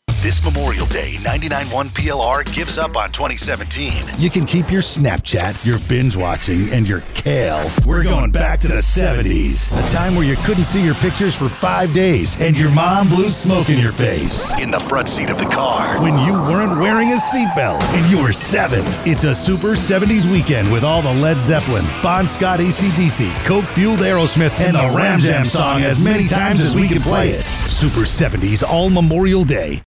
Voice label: Promo; Super 70s